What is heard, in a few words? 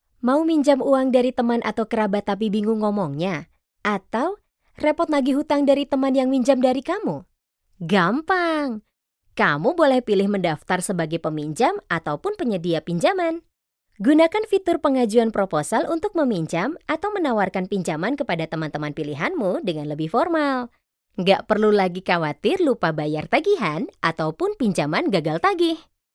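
Indonesian, Commercial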